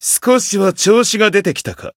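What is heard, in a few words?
growth, Japanese; majuou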